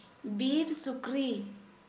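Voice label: Santali female boar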